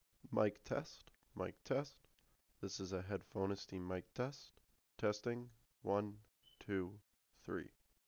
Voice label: Void Elite Quiet Environment